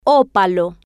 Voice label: "o-pa-lo", Spanish

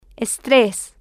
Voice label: "es-tres", Spanish

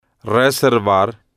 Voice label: "reservar", Spanish

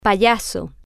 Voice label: "payaso", Spanish

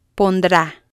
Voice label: "pondra", spanish

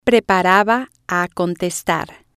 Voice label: "preparaba Contester", spanish

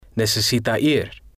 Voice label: "necesita ir", spanish

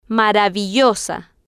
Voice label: "ma-ra-vi-llo-sa", Spanish

